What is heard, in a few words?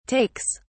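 "takes", British English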